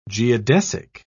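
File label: "geodesic", British English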